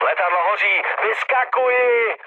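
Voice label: on fire, Czech, mood low; War Thunder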